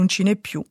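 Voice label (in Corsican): "ci hè ùn ci n'hè più", Moita Verde (nord)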